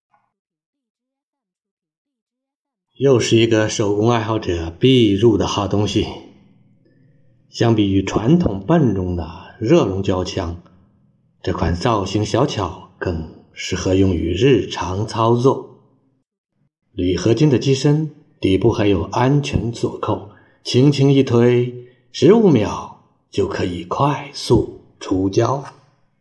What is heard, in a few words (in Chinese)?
胶枪广告